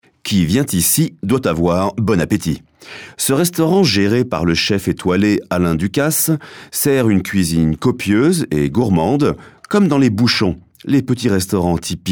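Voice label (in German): French Kommentar Freundlich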